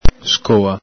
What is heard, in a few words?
"scoa", Veneto